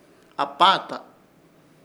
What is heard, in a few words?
"APAATA", Ishir Chamacoco; enrollado